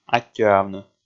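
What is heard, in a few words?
"аттьӧавны", Komi, male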